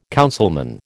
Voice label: "councilman", American